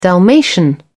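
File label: "dalmatian", British English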